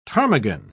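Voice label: "ptarmigan", British English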